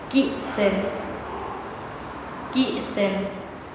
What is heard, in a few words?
"domingo", Nasa Yuwe; paez